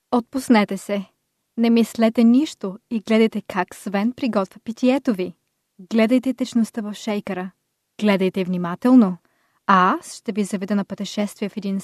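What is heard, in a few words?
Bulgarian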